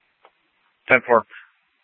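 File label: Scottsdale PD North Dispatch; Nth Dispatch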